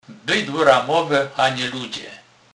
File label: bydlo ramowe, Kurpie; slownik